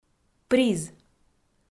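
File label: "приз", Komi, female; kpv audio dict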